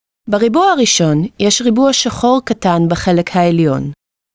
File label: Square Target, hebrew